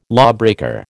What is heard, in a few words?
"lawbreaker", American